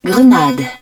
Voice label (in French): grenade launcher, french; Battle Engine Aquila